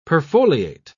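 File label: "perfoliate", British English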